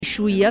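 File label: "Chouïa", French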